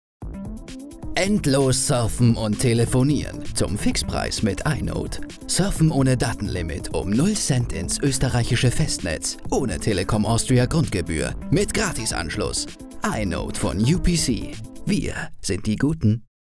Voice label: Werbung; i-Note

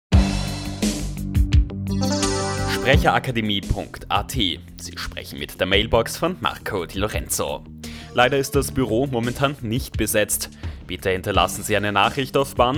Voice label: Telefon

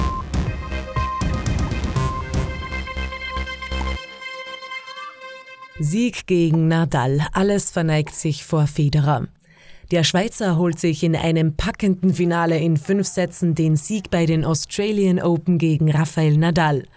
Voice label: news sport

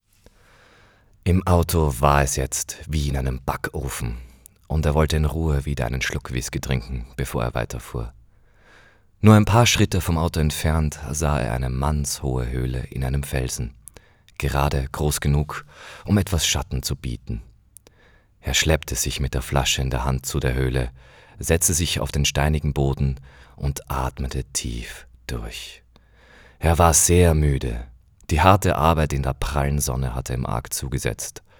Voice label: Hörbuch deutsch

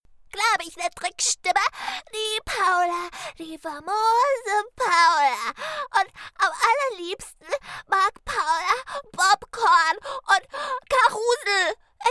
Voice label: Trickstimme

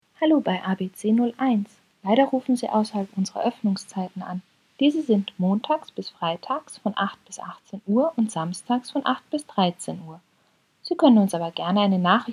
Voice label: Anrufbeantworter

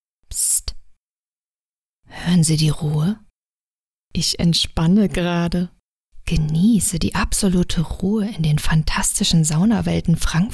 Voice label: Werbung Wellness

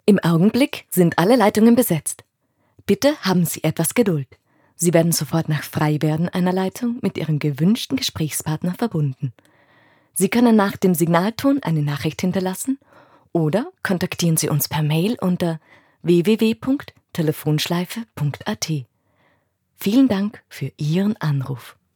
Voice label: Telefonschleife

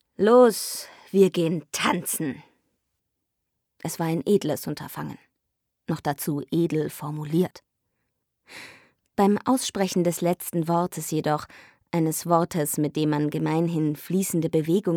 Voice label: neutraler

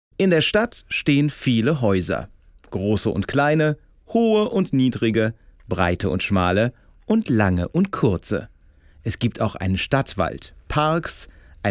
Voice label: Hörbuch Erdmännchen; Hörbuch Kinder

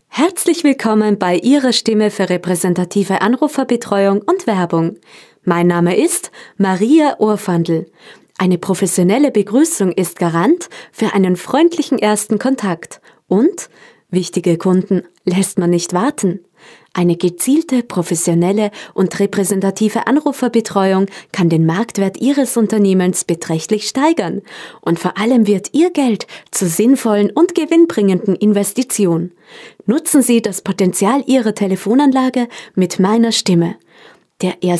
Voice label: Telefonansage

